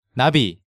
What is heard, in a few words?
"Nabi", Korean